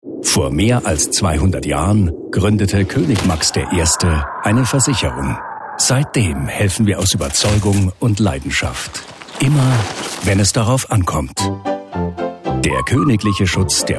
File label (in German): Bayerisch Freundlich; VKB